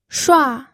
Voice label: "shua4", pinyin